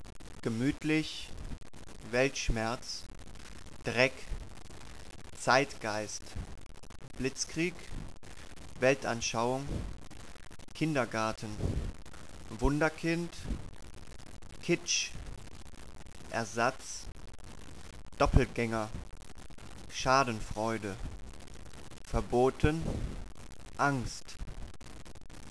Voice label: german